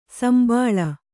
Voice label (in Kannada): "ಸುಂಬುಳ", kannada